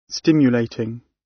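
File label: "stimulating", British English; The arts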